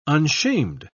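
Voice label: "unshamed", British English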